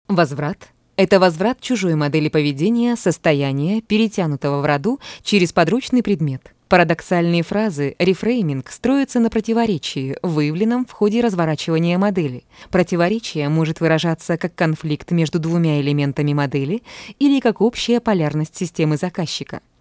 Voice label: povest rus

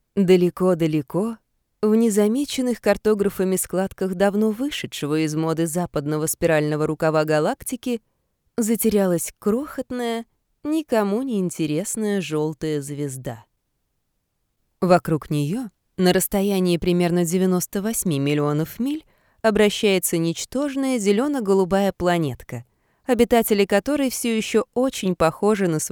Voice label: audiokniga Rus

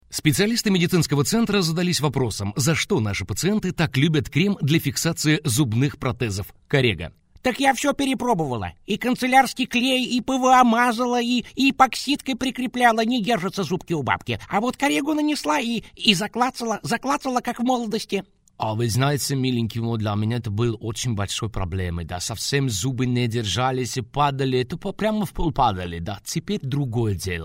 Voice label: v rolyah Rus

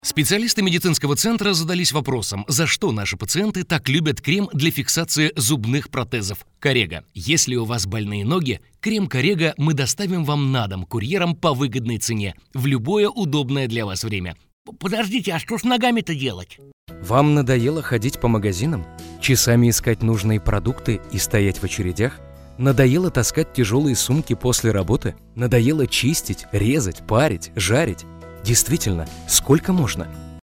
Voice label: privetlivo Rus

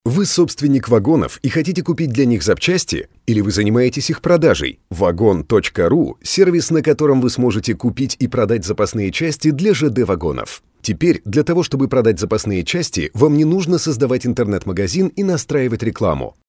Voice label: presentation zg

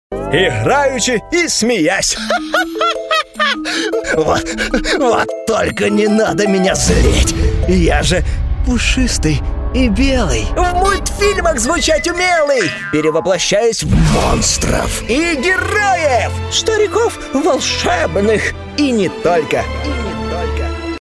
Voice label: igrovue rus

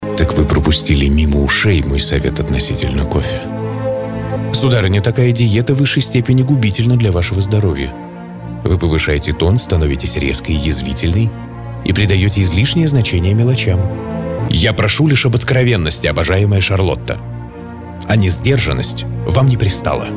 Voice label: teatralno Rus

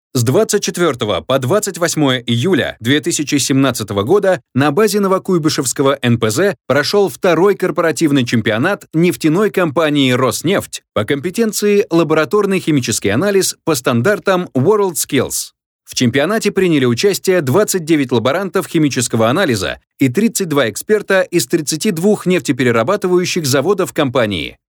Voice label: zg presentation Rus

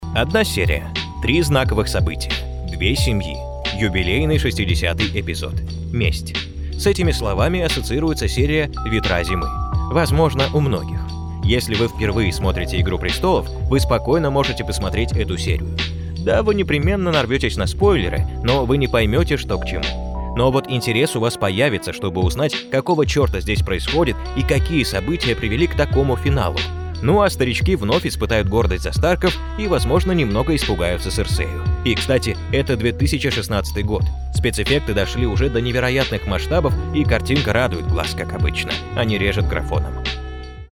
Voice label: cinema news

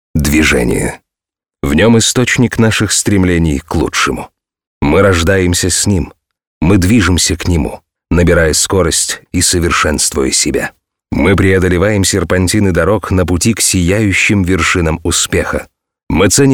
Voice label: promo zg

